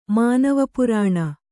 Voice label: "ಮಾನವಪುರಾಣ", Kannada

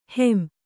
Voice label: "ಹೊಂ", Kannada

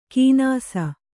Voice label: "ಕೀನಾಸ", Kannada

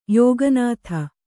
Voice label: "ಯೋಗನಾಥ", Kannada

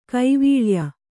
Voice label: "ಕೈವಲ್ಯ", Kannada

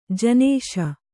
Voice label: "ಜಿನಶಿ", Kannada

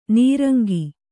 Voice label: "ನಿರಂಕ", Kannada

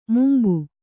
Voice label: "ಮುಂಬು", Kannada; mumbu; (Noun)

